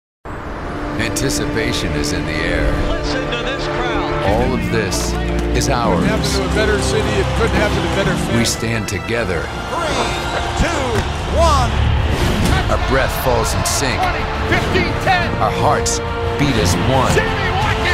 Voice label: english american promos; North American English professional, working voice actor